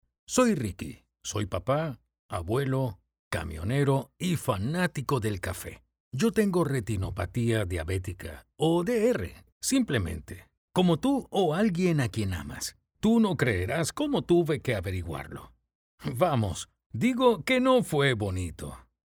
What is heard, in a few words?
eLearning Spanish Male; DR Xplained